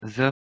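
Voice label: "za", Pashto, male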